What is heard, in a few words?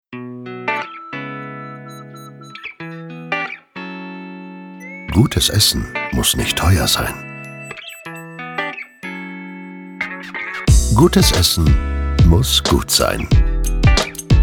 Commercial; LOFT VOICES